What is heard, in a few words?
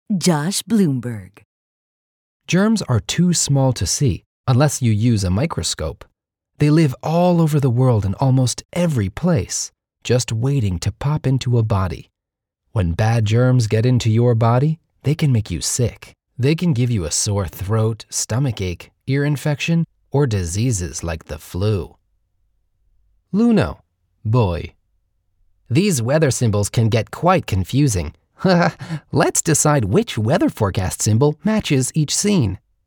Child Narration